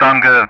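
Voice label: Speech Sound Russian; DCS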